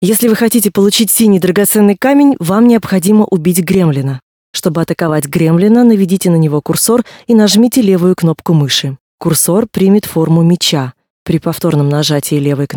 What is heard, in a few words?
tutorial voice ru; lineage2